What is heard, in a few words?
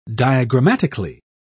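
"diagrammatically", British English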